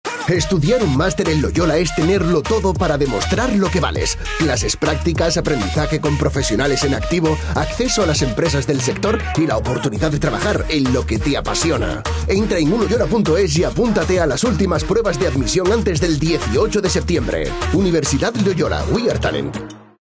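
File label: comercial, Spanish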